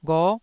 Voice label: "gho9", Taiwanese syllable